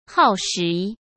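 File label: "耗时", Mandarin Chinese; chinese-flashcards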